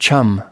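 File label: "chum", US English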